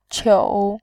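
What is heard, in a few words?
"qiu", Mandarin Chinese, third tone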